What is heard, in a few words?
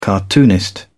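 "cartoonist", English, British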